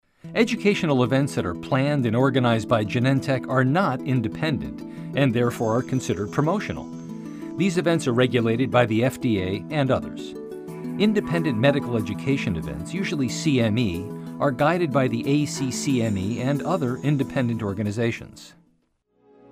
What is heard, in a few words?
Narration